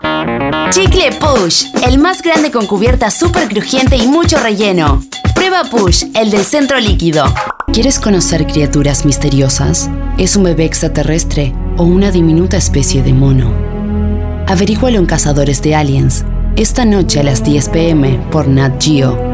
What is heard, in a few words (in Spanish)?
neutro, espanol neutro